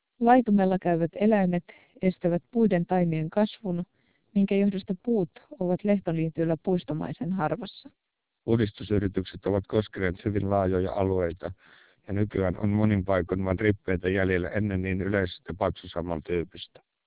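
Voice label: twelp 2400 Finnish short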